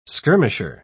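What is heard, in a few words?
"skirmisher", British English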